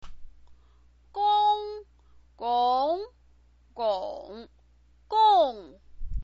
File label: "gong", chinese pinyin